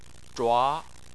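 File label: "zhua", Mandarin Chinese, second tone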